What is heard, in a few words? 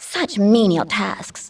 Female; baldurs1